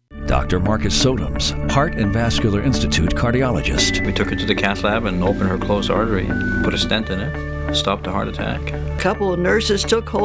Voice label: Health Medical VO